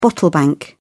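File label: "bottle bank", British English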